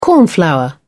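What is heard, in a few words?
"cornflower", British English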